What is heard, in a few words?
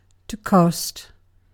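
"cost", British English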